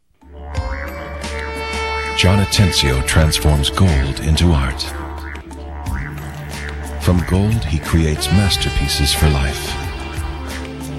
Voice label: Soft Sexy Edgy Voiceprint; John Atencio Jewelry